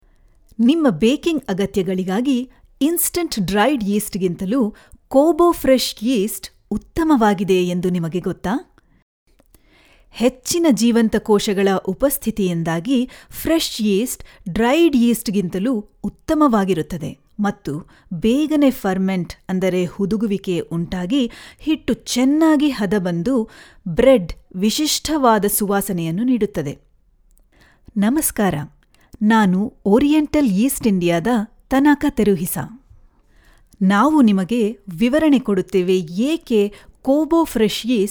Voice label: Kannada; KOBO yeast

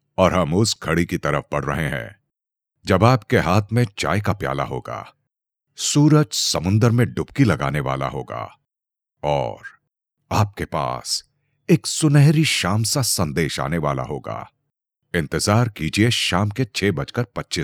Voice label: HINDI; Big Boss2

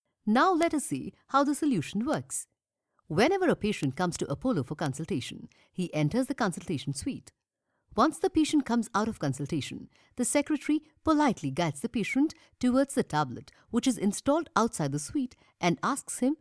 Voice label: English Promo Video

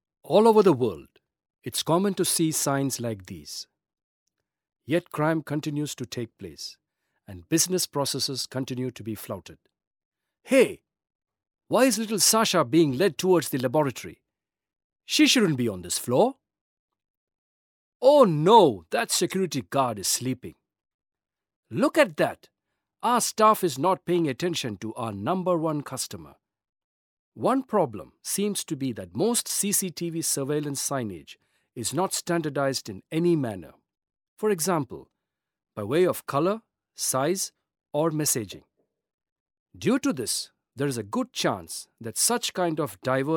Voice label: Explainer Eng

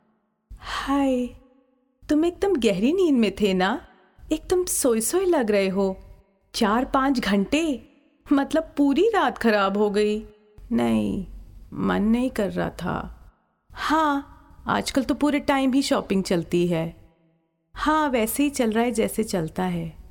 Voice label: Dubbing Hindi

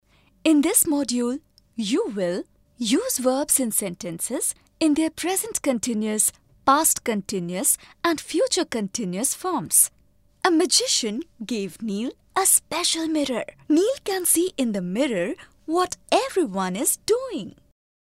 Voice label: Female

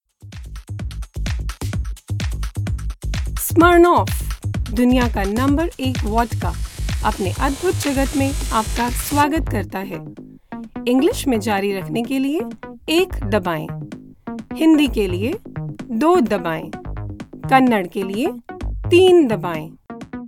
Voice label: IVR hindi

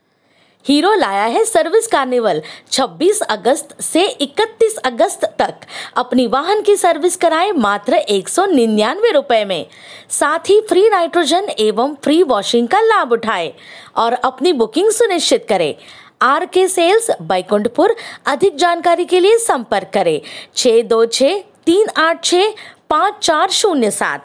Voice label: hindi

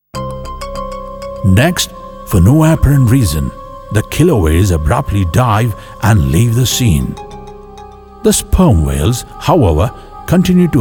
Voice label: narration english

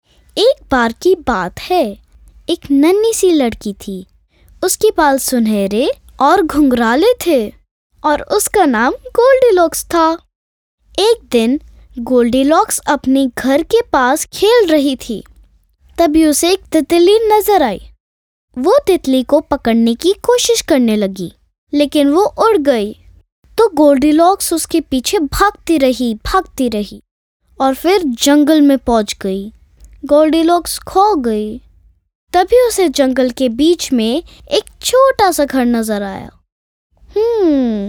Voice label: hindi

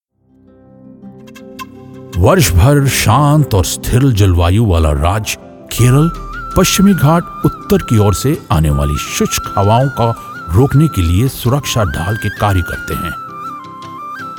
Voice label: docu kerala hindi

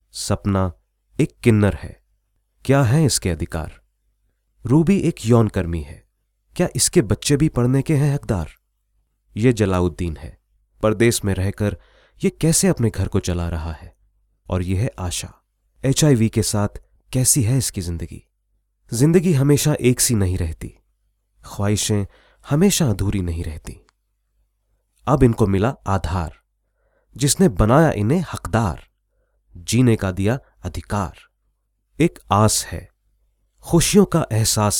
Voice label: narration hindi

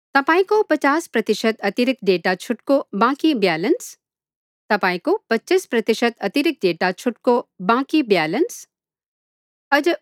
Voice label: NEPALI NARRATION

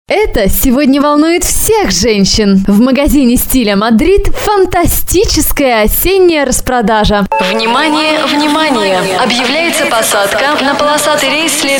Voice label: russian

